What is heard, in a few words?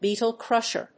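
"beetle-crusher", English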